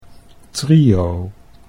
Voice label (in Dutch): "trio", Dutch